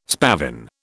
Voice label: "spavin", British